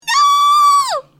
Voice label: panicked nooo